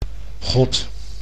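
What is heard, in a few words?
"God", Dutch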